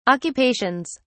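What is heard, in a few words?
"occupations", British English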